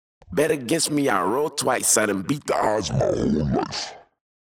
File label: vocal drop